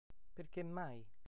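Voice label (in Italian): "perchemai", Italian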